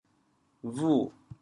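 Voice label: "bhu6", Teochew, chaoyang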